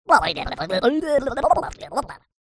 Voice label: cartoon voice male gibberish whacky; zapsplat